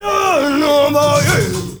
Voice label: male Zulu, male noise; Heart of Africa; Spectrasonics; Heart of Africa 1

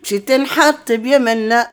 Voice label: OLD WOMAN, Arabian Traditions; USB Soundscan vol.43; AKAI

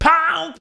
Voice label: "PUMP", SHOUTS; Best Service; Hyper Dance

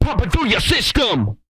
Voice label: PUMPING, Vocal Planet, HIP-HOP; ILIO; R&B & Dance; TB HIP-HOP

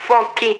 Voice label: VOICE, VOICE-FX

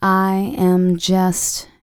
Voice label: FEM. SPOKEN; R&B Dance

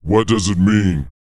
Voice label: pitch low; R&B & Dance; SCI-FI; ILIO; Vocal Planet

